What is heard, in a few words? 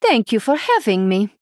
Female Draenei MIRROR GREETINGS